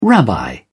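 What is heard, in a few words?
"rabbi", English, uk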